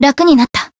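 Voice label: healed, Japanese; sophia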